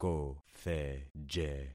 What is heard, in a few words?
"kotheje", concat syllables; Affixing experiment